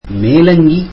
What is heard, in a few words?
tamil coat